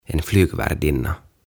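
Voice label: "En flygvärdinna", "Lentoemäntä", Swedish, Finnish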